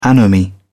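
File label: "anomie", British English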